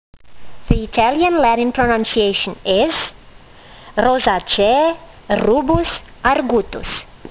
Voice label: latin; Rubus argutus